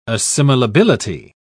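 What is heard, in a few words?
"assimilability", British English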